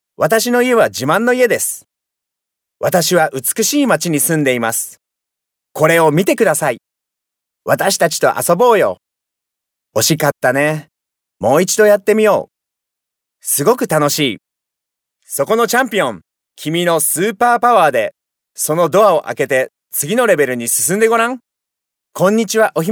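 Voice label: Japanese male children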